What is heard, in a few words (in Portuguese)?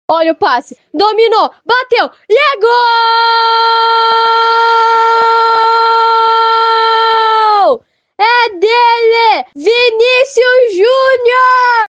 OFF NARRADOR; ais fm planalto norte e alto vale; narrador por um dia